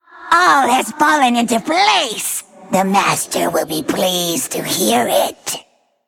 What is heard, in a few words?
Female Undead